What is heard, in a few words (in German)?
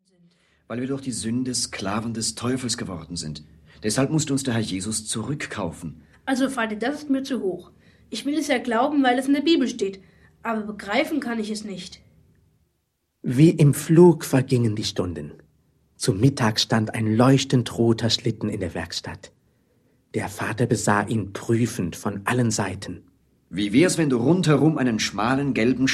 Hoerspiel